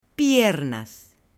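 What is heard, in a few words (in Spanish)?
"piernas" Spanish, male; CUERPO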